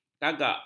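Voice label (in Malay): "kagak", Malay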